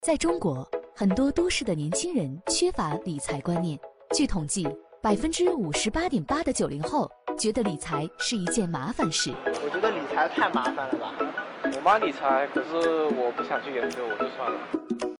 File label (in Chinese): 女 宣传片 品牌宣传